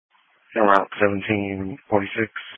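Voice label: Police Patrol Dispatch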